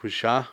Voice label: "sombra", Cucapá

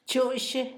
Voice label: tomate, Zapoteco, Asunción Ocotlan; Comestibles; INALI; Haspelmath

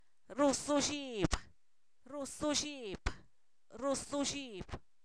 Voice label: Zapoteco, san miguel albarradas; se arrodilla; verbos; INALI

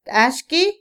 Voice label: limpio, Otomí, mujer; Percepción; INALI

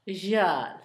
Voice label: nariz, Zapoteco, Asunción Ocotlan; Cuerpo; INALI; Haspelmath

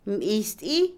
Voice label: hinchado, inflamado, Otomí, mujer; Cuerpo; INALI

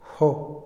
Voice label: "gustar", Otomí, hombre; Percepción; INALI

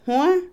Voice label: pez, pescado, Otomí, mujer; Animales; INALI

